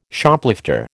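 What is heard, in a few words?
"Shoplifter", American English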